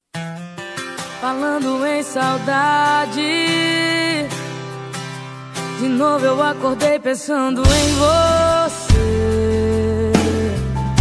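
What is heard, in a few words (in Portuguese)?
ANIVERSARIO DE EX ESPOSO female, telemensagem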